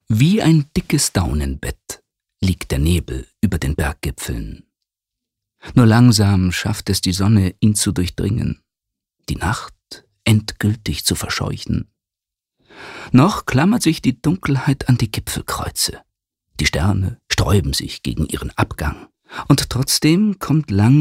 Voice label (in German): Schauspiel Variabel